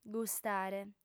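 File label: "gustare", female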